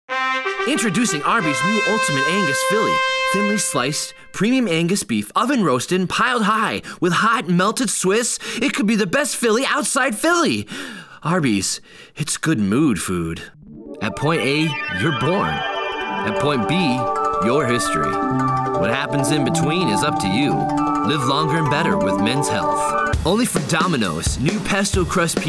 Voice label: commercial voiceover